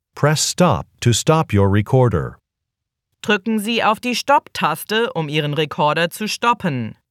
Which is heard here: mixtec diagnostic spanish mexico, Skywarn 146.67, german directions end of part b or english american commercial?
german directions end of part b